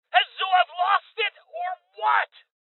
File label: Voices Male; Rebel Cops